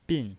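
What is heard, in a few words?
"bin", Mandarin Chinese, fourth tone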